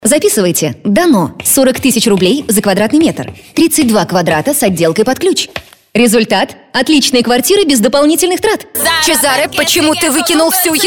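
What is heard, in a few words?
Russian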